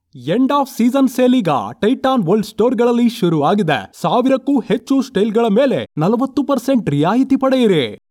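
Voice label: Kannada, male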